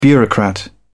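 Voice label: "bureaucrat", British English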